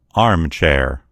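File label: "armchair", American English; gcompris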